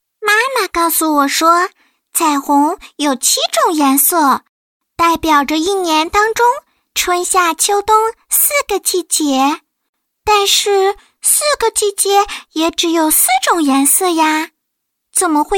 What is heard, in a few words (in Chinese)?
女童 彩虹有7种颜色 5 6岁